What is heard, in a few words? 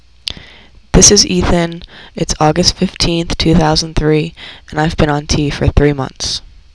Three Months, testosterone, voiceclips; transition